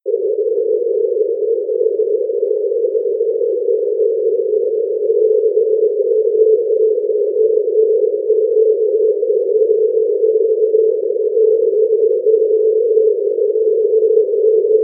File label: 70cm, tropo